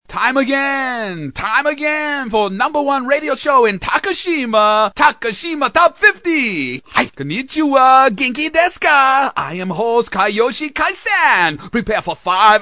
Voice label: JAPANESE Radio Host, cartoon; animation